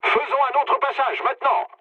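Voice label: bomb failure, mood low, French; War Thunder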